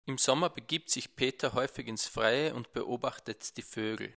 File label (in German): mikro pop nahe unter ohne filter